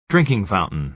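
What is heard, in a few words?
"drinking fountain", American English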